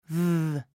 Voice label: V Sound, letter sound